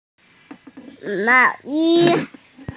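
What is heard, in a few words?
"mao2yi1", Mandarin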